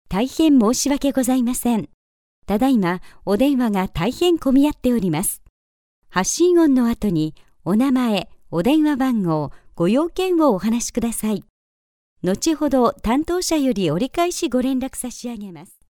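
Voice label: Japanese, female, interactive voice response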